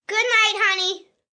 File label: Goodnight Honey, 1 boy, 8 yrs; Talking Sound Effects